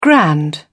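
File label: "grand", English, British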